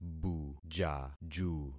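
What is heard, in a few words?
"bujaju", concatenated syllables; Affixing experiment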